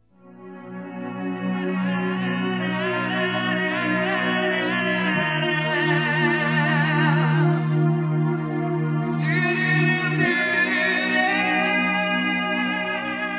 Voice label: ANIV. ROM M; Aniversários; ANIVERSARIO romantico; masc

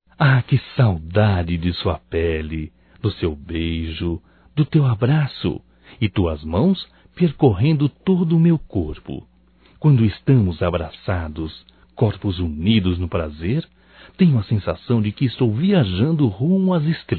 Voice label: SAUDADES EROTICA, Só Voz, masc; Telemensagens; Telemensagens 2017

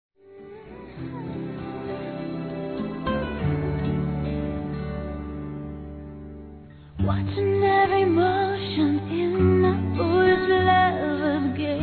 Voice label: Não quero mais Brigar Masculino, Telemensagens

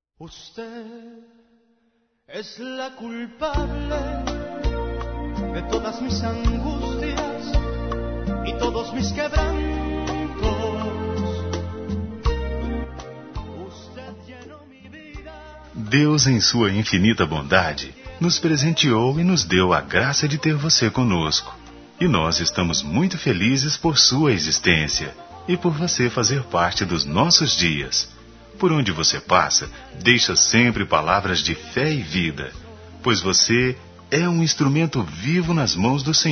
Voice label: Aniversário Evangélico Plural M, Telemensagens

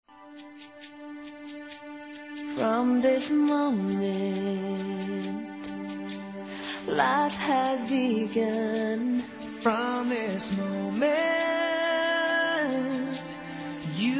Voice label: ANIV. ROMANTICO F

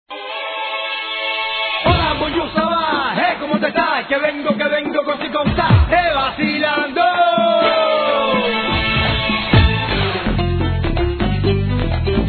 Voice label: Qualquer Data Especial Feminino, Telemensagens; Amizade

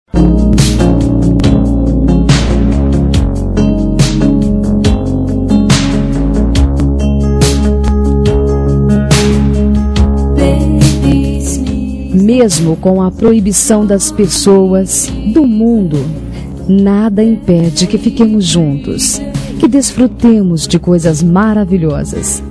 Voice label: AMOR PROIBIDO FEM; Telemensagens

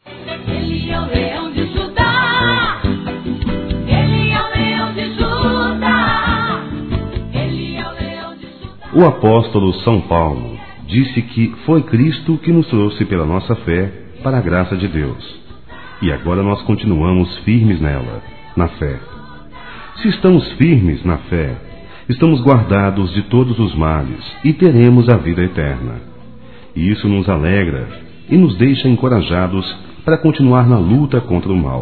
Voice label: Evangélico Aniversário Geral Turma Masculino

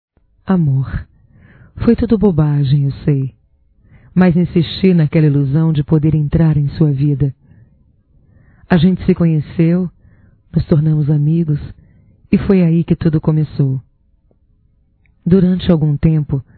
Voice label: AINDA TE AMO (F); Declaração de amor; fem; Telemensagens 2017

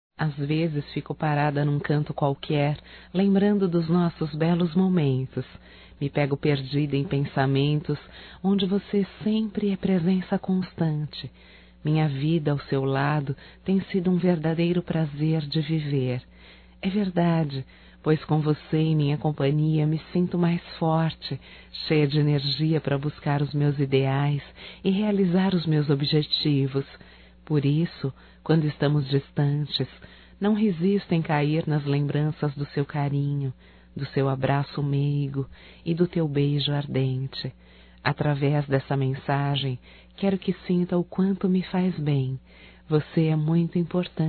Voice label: ROMANTICA(F), Românticas, fem

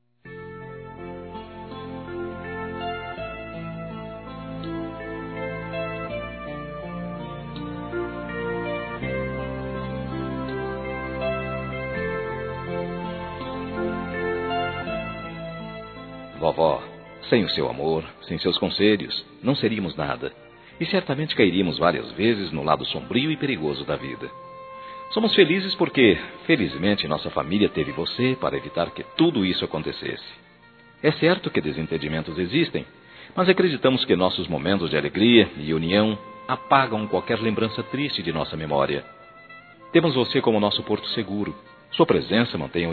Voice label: Aniversário Avó Masculino

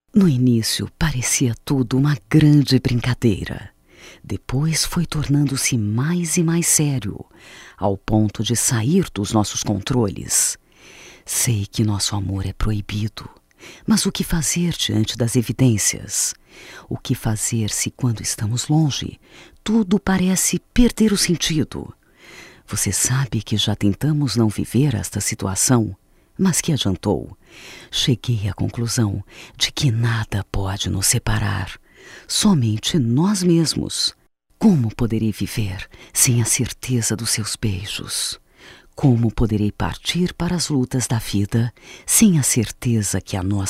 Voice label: Feminino; Amantes Feminino; Amor

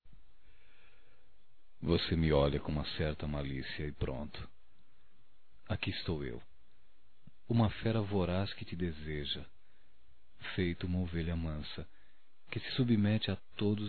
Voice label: Erótica Leve Masculino; Românticas

